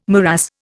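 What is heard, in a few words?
"morass", Indian English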